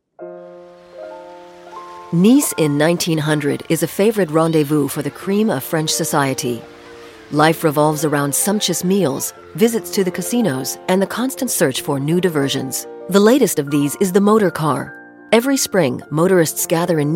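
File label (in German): US English, Kommentar Neutral; Fremdtake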